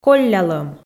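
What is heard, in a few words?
"коллялӧм", Komi, female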